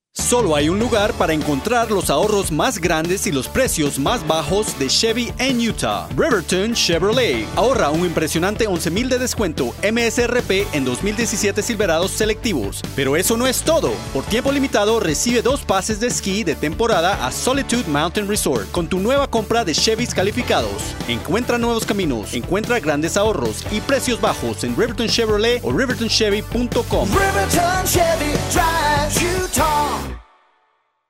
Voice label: spanish; RVC